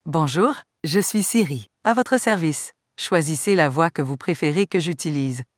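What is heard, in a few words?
Canadian French; fr-CA; iOS Sounds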